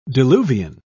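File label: "diluvian", British English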